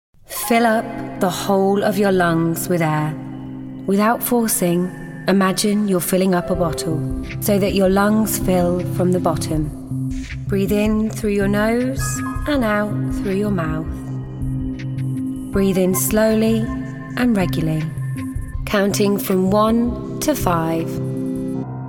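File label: British English Corporate; GoLocalise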